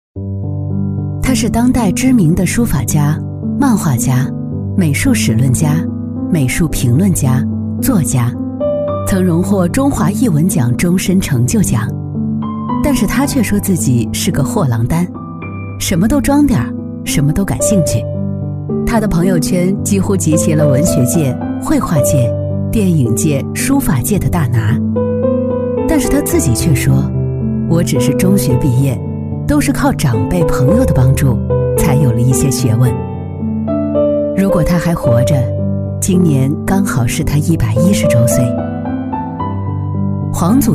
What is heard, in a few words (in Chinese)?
女-纪录片人物; 中山日报黄苗子